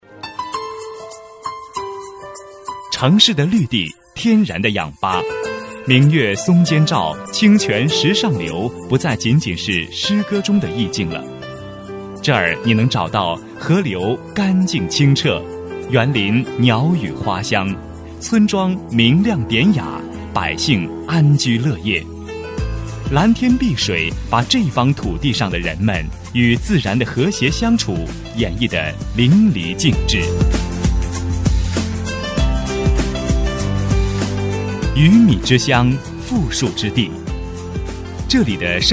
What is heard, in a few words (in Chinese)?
男 专题 政府 乡镇宣传 沉稳